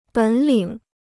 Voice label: "本领", Chinese

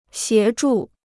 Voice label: "协助", Chinese

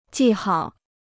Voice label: "记号", Chinese

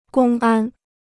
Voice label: "公安", Chinese

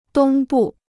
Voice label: "东部", Chinese

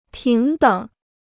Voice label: "平等", Chinese

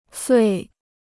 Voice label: "罪", Chinese

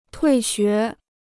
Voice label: "退学", Chinese

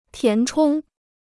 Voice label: "填充", Chinese

